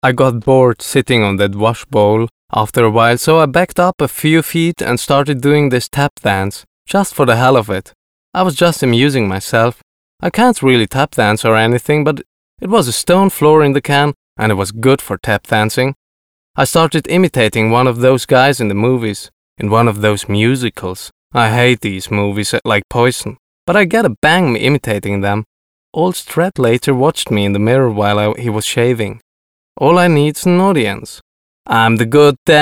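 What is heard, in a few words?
English, voice over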